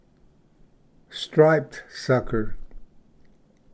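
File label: "striped sucker", English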